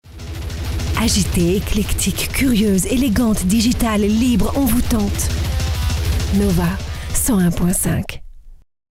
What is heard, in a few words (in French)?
dynamique; nova